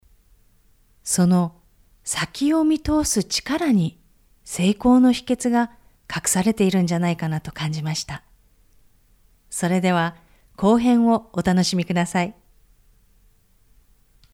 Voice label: 日语女, 干音

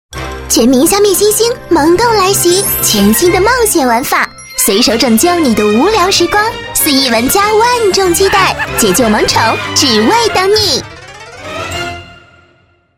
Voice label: 女19 广告 游戏 可爱 欢萌, 国语女声配音; 全民消灭星星

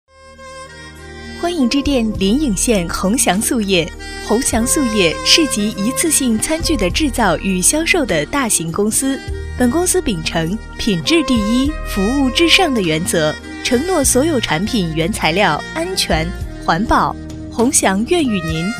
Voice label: 女, 国语女声配音 彩铃广告; 临颍县鸿翔塑业有限公司

